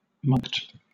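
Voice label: "mulct", British English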